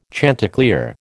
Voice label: "chanticleer", American